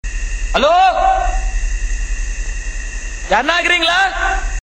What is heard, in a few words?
Hello Tamil